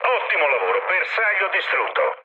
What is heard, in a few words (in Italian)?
bomb success, mood low, speech it; War Thunder